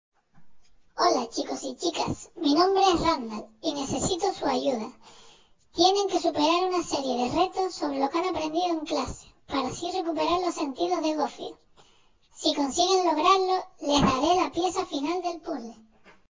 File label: voice changer